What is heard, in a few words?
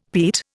"peat", Indian; Dictionary